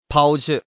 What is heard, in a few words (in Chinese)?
"pao", woman; hunan